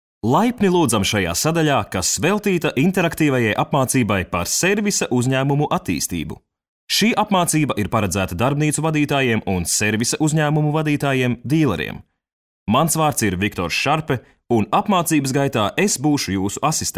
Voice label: male latvian voice over talent upbeat

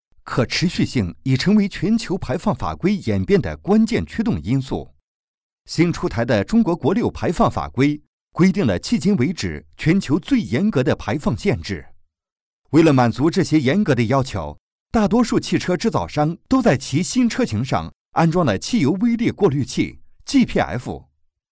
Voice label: male chinese voice over talent narrative; mandarin chinese

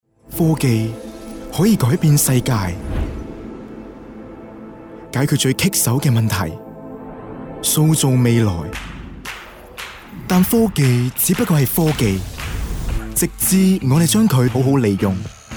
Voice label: male cantonese voice over sales

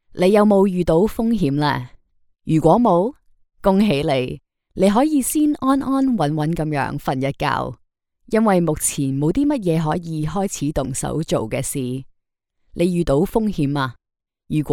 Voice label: female cantonese voice over narrative

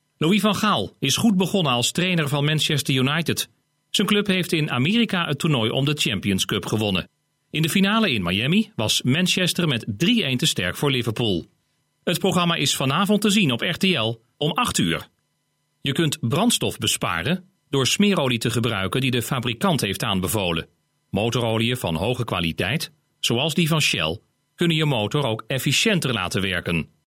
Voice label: male dutch voice over talent narrative

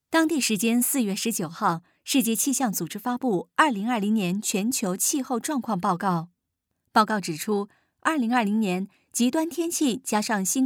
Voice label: female chinese voice over narrative, mandarin

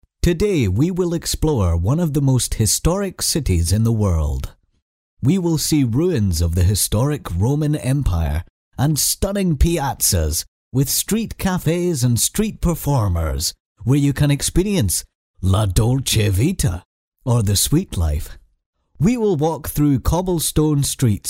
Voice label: male scottish voice over talent upbeat

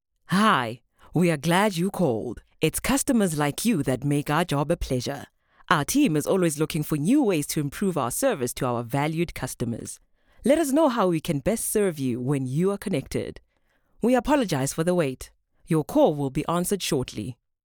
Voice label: female tswana voice over english

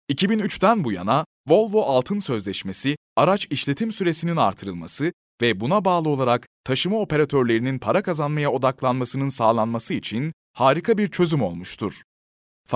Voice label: male turkish voice over talent narrative

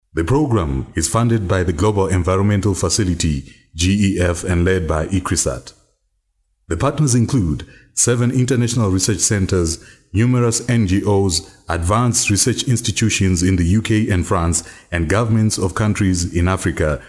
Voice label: male african voice over narrative

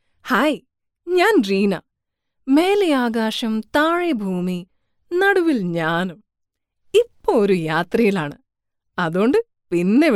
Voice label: female malayalam voice over narrative

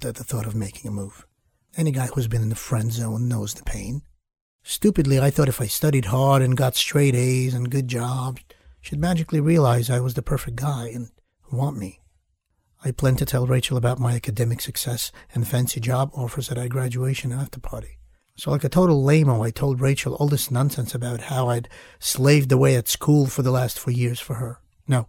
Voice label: male russian voice over talent english

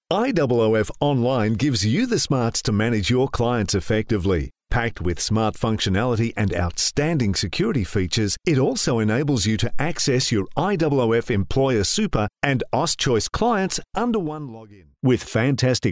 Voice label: male australian voice over sales

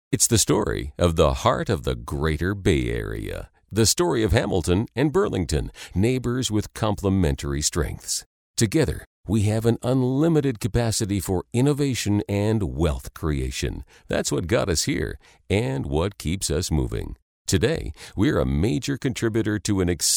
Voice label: male canadian voice over narrative

